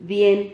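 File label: "dien", Spanish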